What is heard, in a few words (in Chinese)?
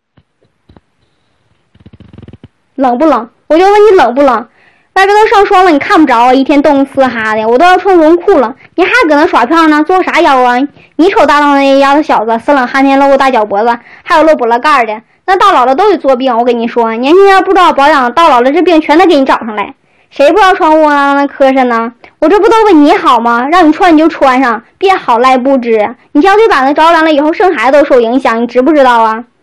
东北方言